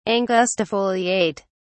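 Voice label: "angustifoliate", British English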